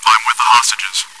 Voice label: chitchat; svencoop

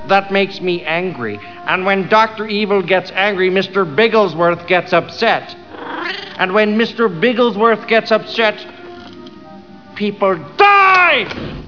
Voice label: evil angry

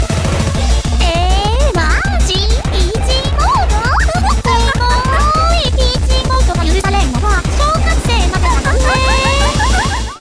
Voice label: chitchat, voice commands; svencoop; Miku

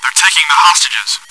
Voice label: chitchat, bot, voice commands; svencoop

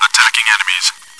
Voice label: chitchat, bot, voice commands; svencoop

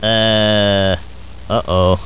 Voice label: uh oh

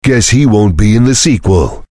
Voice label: cheer; svencoop; voice commands; Duke

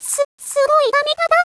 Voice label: cheer, voice commands; svencoop; Miku

